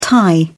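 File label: "thai", English, British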